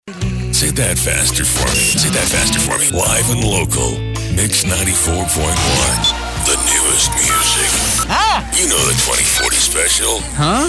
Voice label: american male, imaging